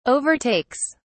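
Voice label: "overtakes", English, British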